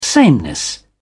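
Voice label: "sameness", British English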